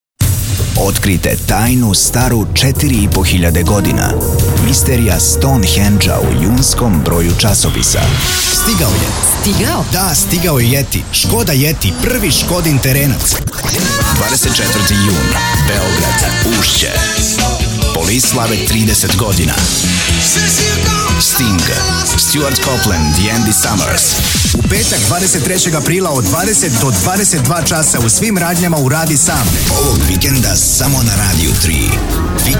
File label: voiceover; alexa